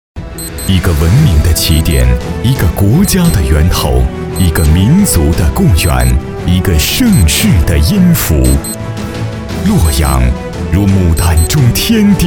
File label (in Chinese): 男 宣传片 城市 大气; 洛阳